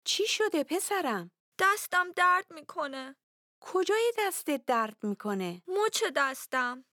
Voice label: Dialogue lesson Farsi